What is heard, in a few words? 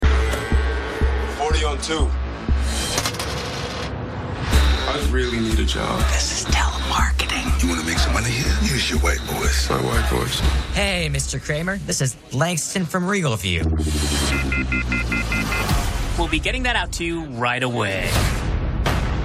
TV Spots; Sorry To Bother You